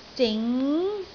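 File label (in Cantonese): "zing2", Cantonese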